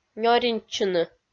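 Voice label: "нёринтчыны", Komi, female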